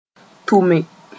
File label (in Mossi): "tʋme", moore; work